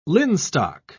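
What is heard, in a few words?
"linstock", British English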